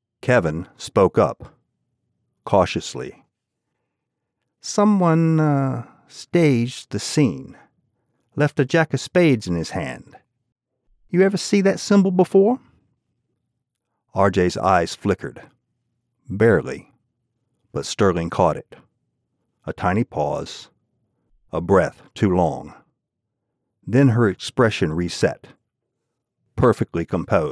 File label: Audiobook Detective; Case of the One-Eyed Jack